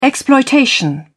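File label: "exploitation", English, British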